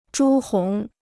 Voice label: "朱红", Chinese